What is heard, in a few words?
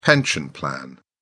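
"pension plan", English, British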